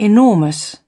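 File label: "enormous", British English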